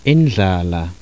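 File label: voiced alveolar fricative nasal, zulu laterals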